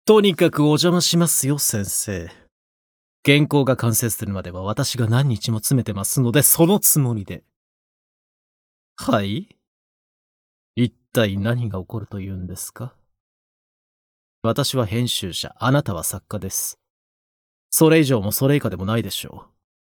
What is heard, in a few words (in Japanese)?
イヤミな男